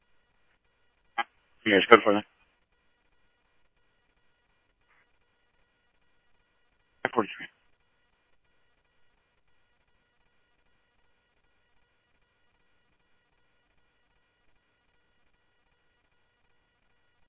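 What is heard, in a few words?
Mesa Police Department, scanner audio trunk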